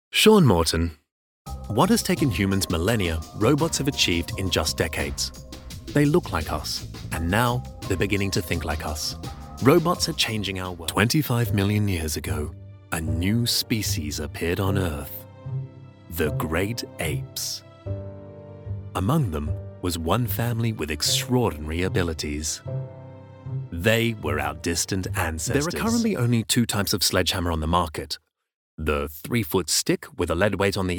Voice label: voiceovers narration

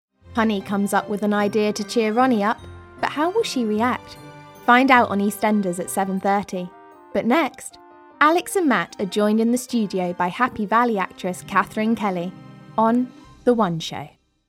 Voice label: Continuity

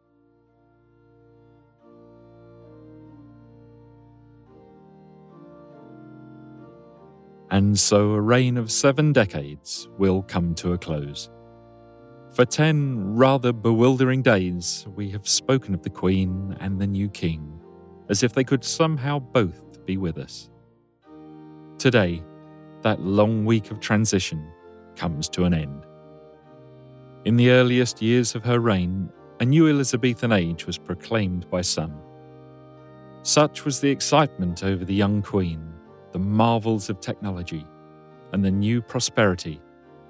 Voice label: Funeral Commentary; Queens

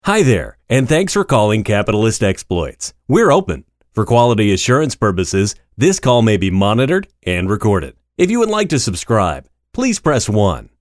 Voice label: Phone System IVR

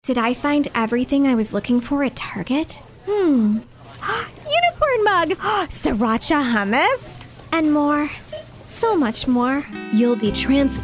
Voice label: Commercial

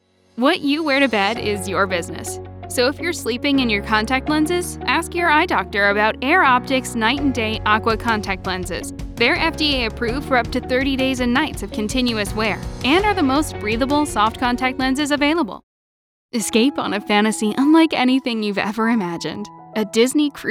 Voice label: Commerical Demo

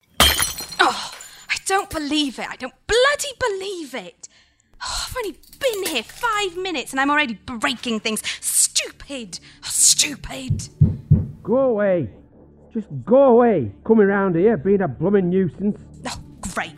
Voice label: Drama

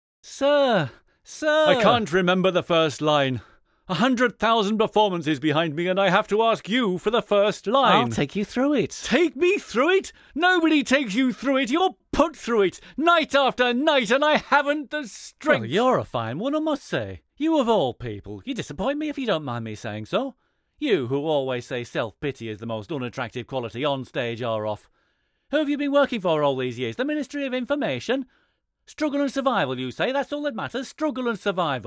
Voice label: Dramatic

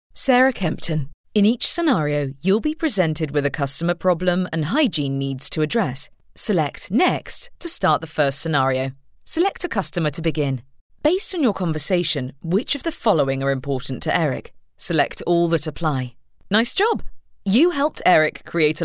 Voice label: Corporate

